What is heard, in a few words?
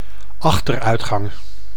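"achteruitgang", Dutch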